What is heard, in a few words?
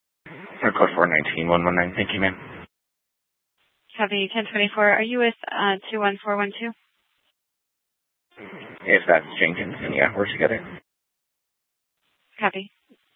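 Police Department Dispatch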